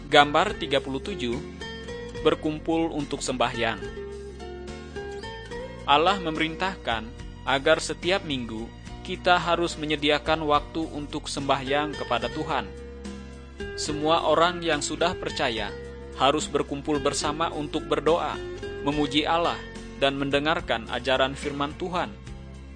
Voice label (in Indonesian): kabar baik good news bahasa indonesia